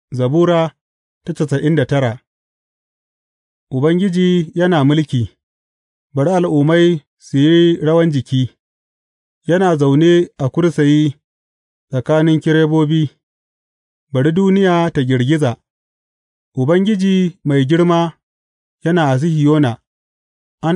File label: hausa bible drama, psalms; hausa bsn nt drama